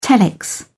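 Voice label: "telex", British English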